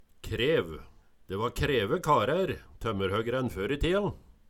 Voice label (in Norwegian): krev, Numedalsmål